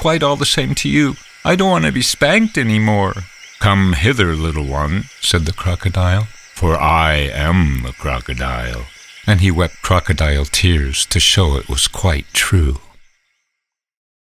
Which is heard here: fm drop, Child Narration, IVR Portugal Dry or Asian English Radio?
Child Narration